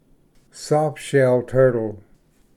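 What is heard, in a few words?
"softshell turtle", English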